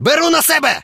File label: attack, combat ukr